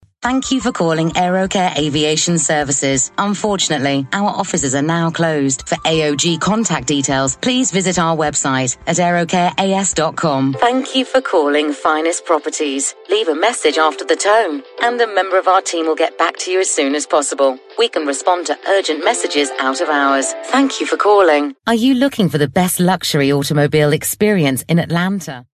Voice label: IVR Telephone On Hold Voice reel